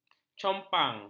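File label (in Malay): "compang", Malay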